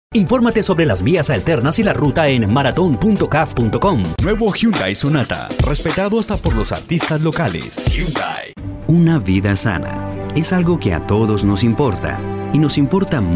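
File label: Latin American Spanish, General; GoLocalise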